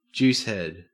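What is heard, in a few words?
"juicehead", Australian English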